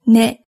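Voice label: "ne", Japanese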